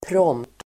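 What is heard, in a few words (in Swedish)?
"prompt", Swedish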